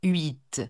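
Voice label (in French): "huit", French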